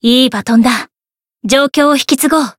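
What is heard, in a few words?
Voice variable chant, Japanese; isuka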